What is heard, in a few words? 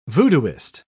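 "voodooist", British English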